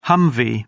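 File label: "humvee", English, British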